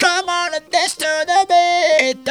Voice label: "dance to beat", Funky Vocals; USB Soundscan vol.18; AKAI